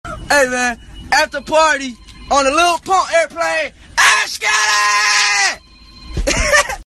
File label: yells on plane; esketit; lil pump; party on the lil pump airplane